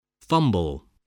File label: "fumble", English voice, spelling